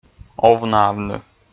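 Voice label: "овнаавны", Komi, male; kpv audio dict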